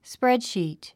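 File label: "spreadsheet", English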